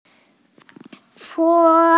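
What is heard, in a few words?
"po1", Mandarin Chinese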